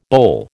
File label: "boll", American